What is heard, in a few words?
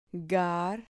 "gar", Spanish syllable